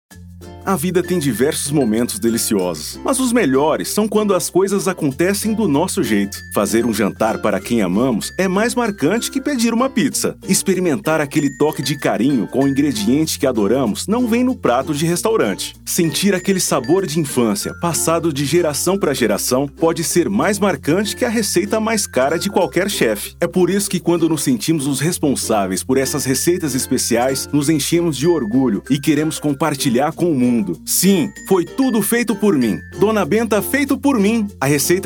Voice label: Locução Natural

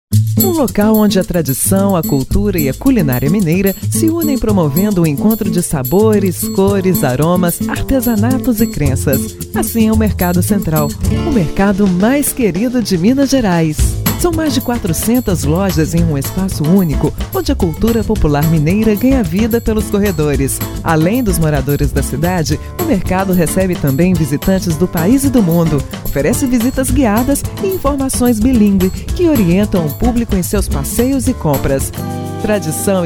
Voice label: Spot Radio; Mercado Central

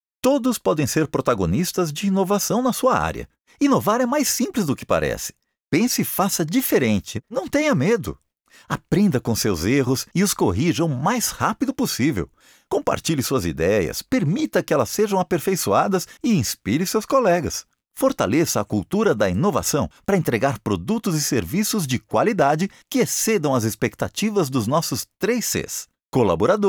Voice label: Motivacional Seguradora

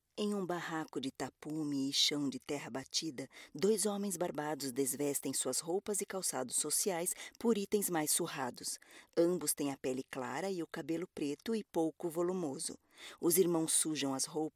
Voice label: Voz Feminina Áudio Descrição

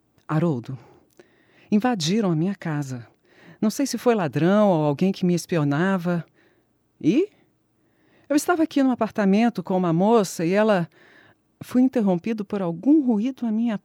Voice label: Trecho Audiobook; Navalhas Pendentes; Paulo Rosembaum

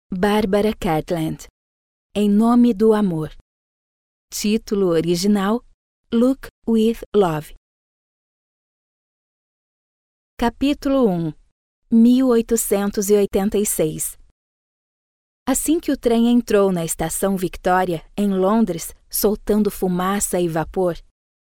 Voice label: Audio Book

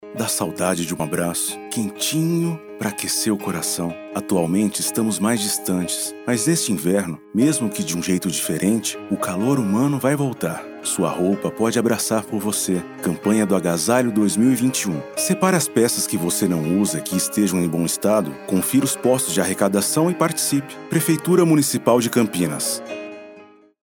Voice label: Locução Sensível

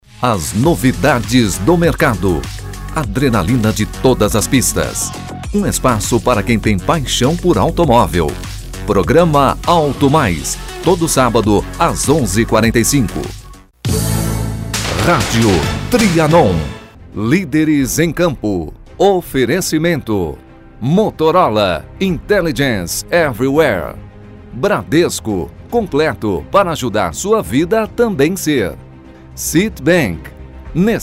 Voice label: Chamadas Vinhetas

